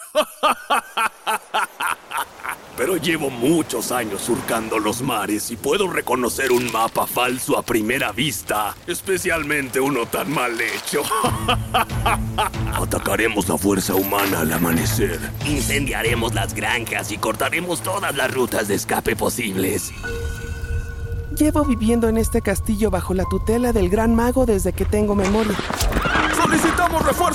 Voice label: Spanish Mexican video games